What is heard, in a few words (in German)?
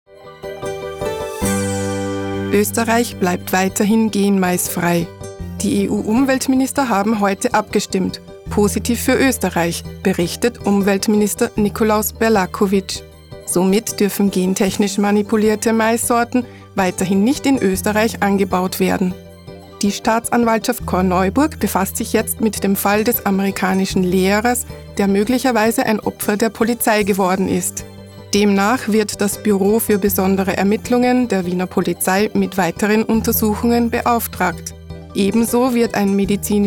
NACHRICHTEN Beitrag